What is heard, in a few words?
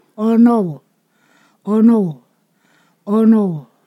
"shade", Ponosakan